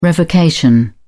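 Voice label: "revocation", British English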